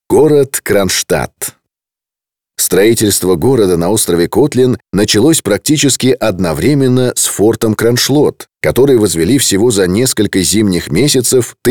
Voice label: Audiogid Kronshtadt; zapis audiogidov